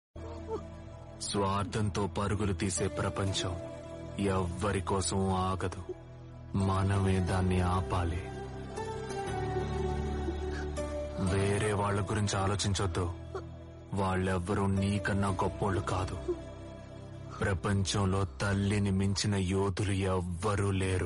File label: Telugu Amma Dialogue